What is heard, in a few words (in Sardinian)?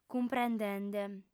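"cumprendende", female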